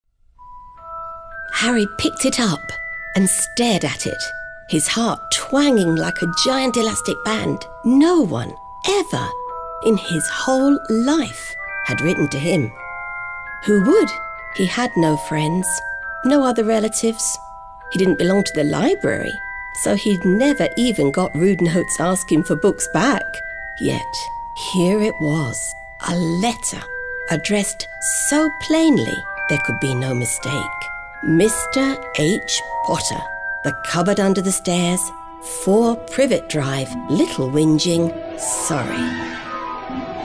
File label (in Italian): Fiaba